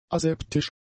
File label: "aseptisch", German; adjectives